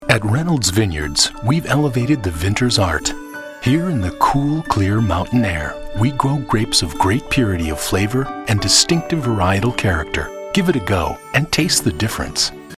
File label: Commercial; REYNOLDS